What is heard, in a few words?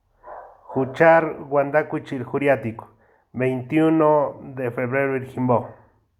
Dia Purepecha